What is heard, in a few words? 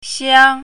"xiang1", Chinese pinyin